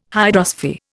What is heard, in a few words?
"hydrosphere", Indian